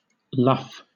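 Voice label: "luff", British English; pronunciations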